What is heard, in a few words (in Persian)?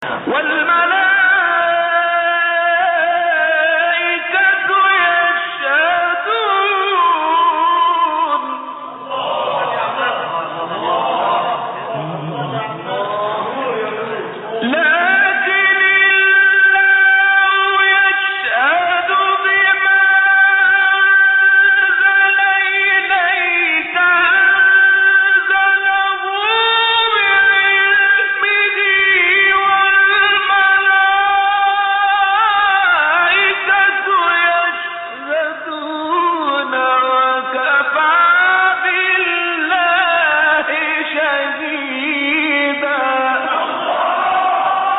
فرازی زیبا از تلاوت سوره نسا; استاد شعبان صیاد